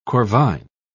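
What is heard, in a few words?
"corvine", British English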